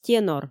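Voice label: "тенор", Komi, female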